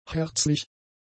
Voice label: "herzlich", German; adjectives